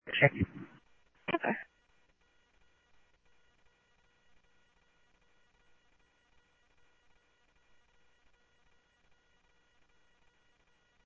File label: Tempe Police, scanner audio; TEMPE PD A04